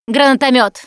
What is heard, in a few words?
grenade launcher, russian, Survivor Voice Producer